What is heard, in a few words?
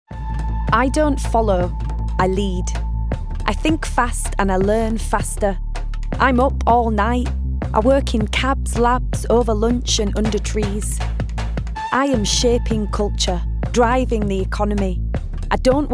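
English UK female character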